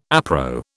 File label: "appro", British